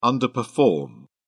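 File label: "underperform", English, British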